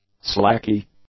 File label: "slacky", English; adjectives